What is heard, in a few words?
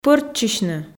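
"пӧрччысьны", Komi, female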